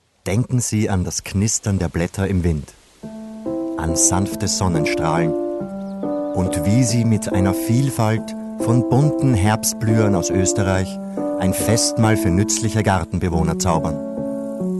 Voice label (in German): WERBUNG; BELLA FLORA